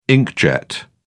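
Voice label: "inkjet", British English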